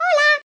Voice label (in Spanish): "hola", ringtones